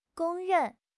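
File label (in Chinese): "公认", Chinese; HSK6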